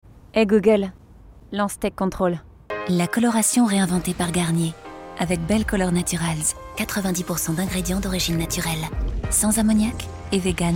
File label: French Commercials